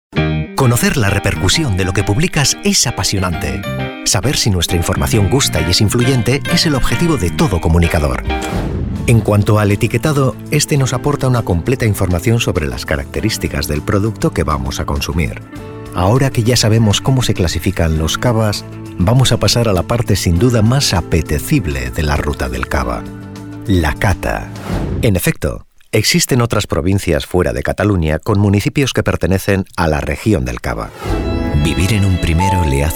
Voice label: Spanish Castilian male voice